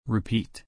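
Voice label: "repeat", English pronunciation; long i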